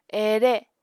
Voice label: "ere", Apatani

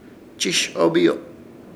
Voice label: "Chishobiyo", Ishir Chamacoco; trabajar

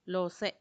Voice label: "lose", tongue, macuiltianguis; lengua

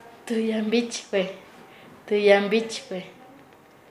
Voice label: "mujer encinta", Nasa Yuwe; paez

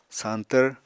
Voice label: "sañtvr", apatani

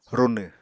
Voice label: "runw", Apatani

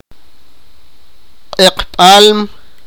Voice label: sipakapense; puma

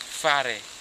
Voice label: Futuna House

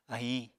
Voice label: "ahiñ", Apatani

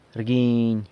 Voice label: "rguiny", San Lucas Quiaviní; hits

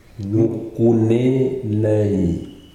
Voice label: tree stump said slowly, Kwamera; Nafe; Erakor

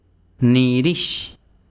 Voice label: besiro nombre, chiquitano

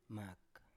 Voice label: "mak", Biak

